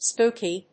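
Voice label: "spooky", English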